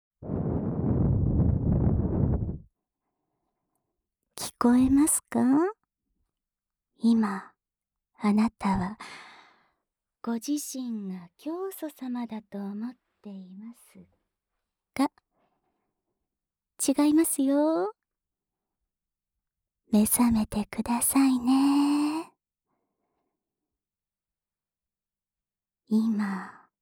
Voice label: フリートーク; シスターさんに搾り取られちゃう!～教祖様に従順なシスターは、新人信徒に純潔調教を施す～

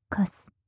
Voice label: カス 極近 左, ASMR; マゾオスのあなたに敗北射精の快楽を叩き込む音声

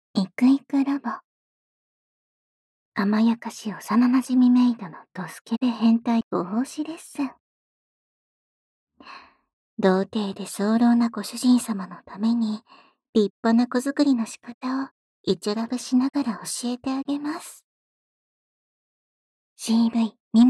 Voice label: タイトルコール, ASMR

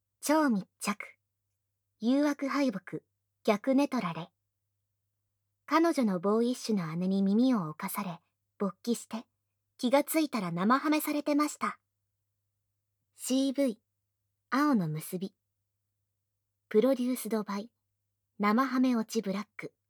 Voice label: タイトルコール, ASMR

